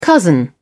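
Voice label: "cousin", British English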